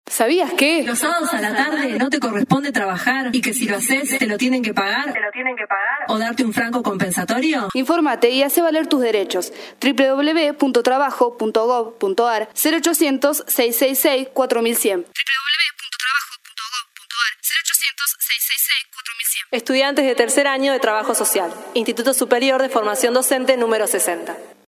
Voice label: spot; derechos del trabajador